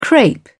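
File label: "crape", English, British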